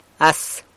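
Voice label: "as", San Lucas Quiavini Zapotec; hi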